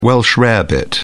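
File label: "welsh rarebit", British English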